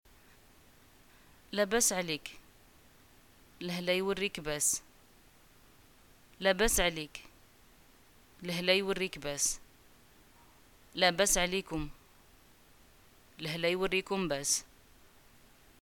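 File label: "greeting get well soon", Moroccan Arabic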